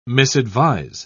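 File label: "misadvise", British English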